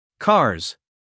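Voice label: "cars", American English, male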